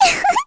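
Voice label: Vox Happy, Korean; Lilia; kingsraid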